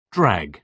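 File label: "drag", British English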